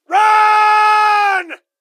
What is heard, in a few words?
run, gasmask; scmod